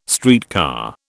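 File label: "streetcar", British English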